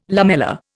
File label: "lamella", Indian